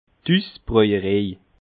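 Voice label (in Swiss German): "husbrojerej", Bas Rhin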